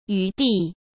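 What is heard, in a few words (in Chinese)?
"余地", Mandarin Chinese; hsk; chinese-flashcards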